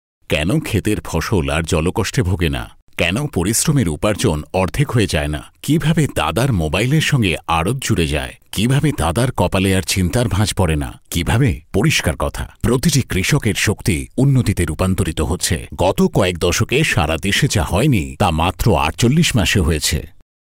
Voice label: TVC